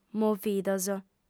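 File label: "movidos", female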